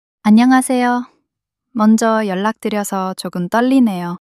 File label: shy